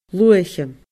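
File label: "luath-cheum", Scottish Gaelic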